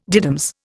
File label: "diddums", Indian